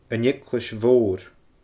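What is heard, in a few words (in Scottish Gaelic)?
"An Eaglais Mhoor", Scottish Gaelic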